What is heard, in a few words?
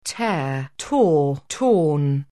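"tear", English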